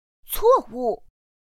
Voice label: "cuòwù", pinyin; pinyin2; pinyinji

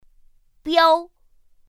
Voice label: "biāo", pinyin; pinyin2; pinyinji

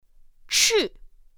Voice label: "chì", pinyin; pinyin2; pinyinji

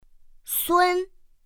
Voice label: "sūn", pinyin; pinyin2; pinyinji

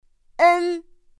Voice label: "ēn", pinyin; pinyin2; pinyinji

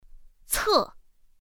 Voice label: "cè", pinyin; pinyin2; pinyinji

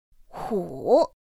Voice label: "hǔ", pinyin; pinyin2; pinyinji

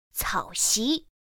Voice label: "cǎoxí", pinyin; pinyin2; pinyinji

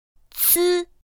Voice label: "cī", pinyin; pinyin2; pinyinji

